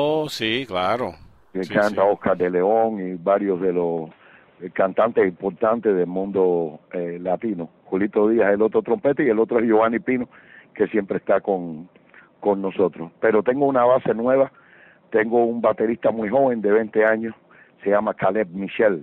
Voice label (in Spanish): Entrevista Band Intro